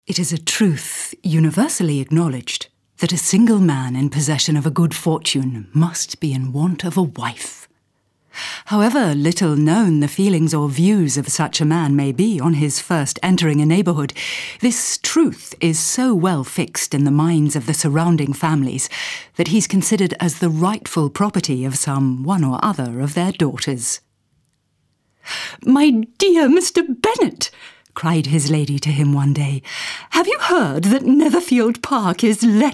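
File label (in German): British English, Schauspiel Poetisch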